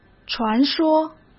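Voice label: "传说", Mandarin Chinese; chinese-flashcards; hsk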